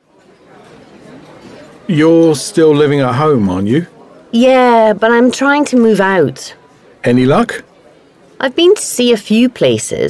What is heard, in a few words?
Ingles sin verguenza pronunciation